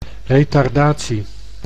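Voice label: "retardatie", Dutch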